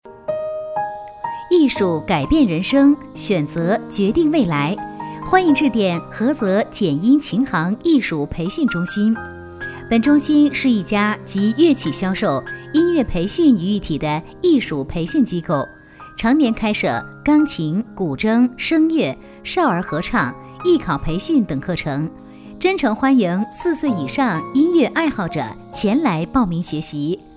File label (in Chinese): 女 琴行艺术彩铃; 菏泽简音